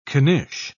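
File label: "knish", English, British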